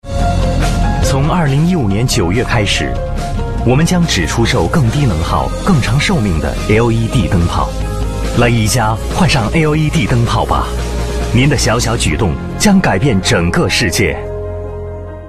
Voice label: 广告 年轻 讲述; 男; 国语男声配音

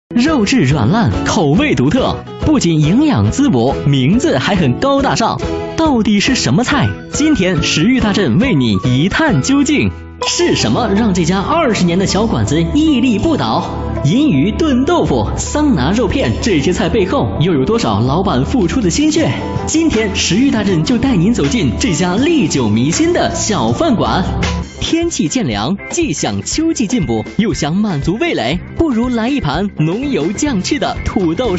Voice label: 男 导视 食欲大振

